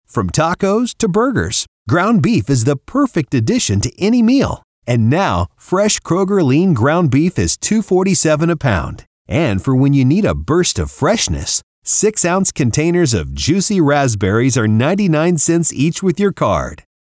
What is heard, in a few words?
Promo; Kroger; Vibenomics